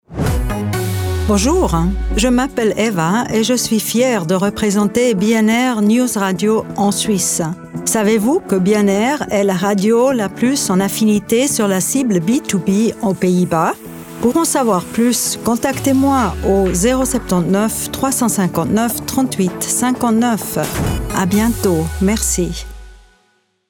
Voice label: Commercial